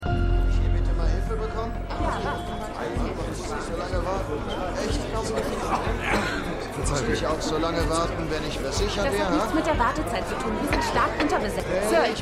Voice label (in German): Wartender Mann Krankenhausrezeptionistin Wuetender Ehemann; The Morning Show